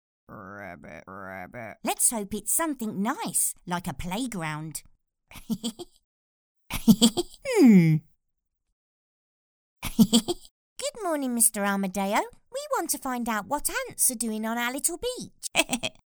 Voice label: english voiceover cartoon voices locutora inglesa voces dibujos